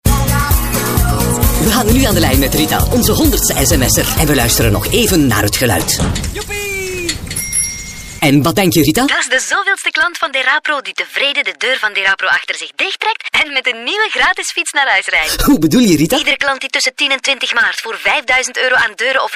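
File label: flemish male commercial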